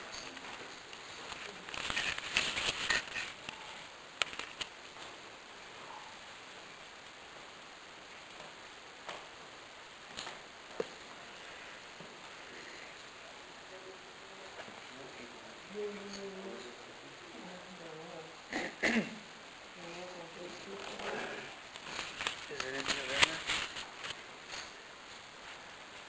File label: deep voice; evps